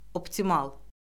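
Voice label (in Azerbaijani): "optimal", Azerbaijani; Orfoqrafiya Lüğəti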